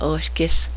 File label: dog, cheyenne language